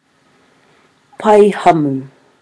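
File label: "paihamu", Māori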